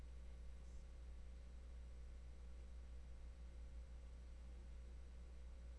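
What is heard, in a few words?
"cartoon", spoken word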